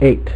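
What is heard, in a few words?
"eight", male; MacCribbage; InterStellar MacCribbage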